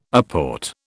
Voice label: "apport", British